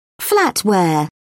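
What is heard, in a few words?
"flatware", British English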